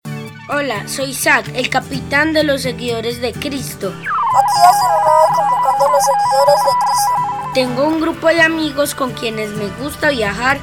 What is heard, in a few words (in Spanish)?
Cuña, serie radial